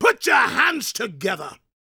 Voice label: preachin, gospel preacher